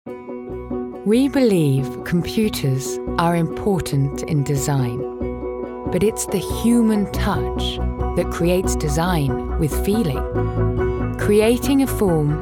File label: Commercial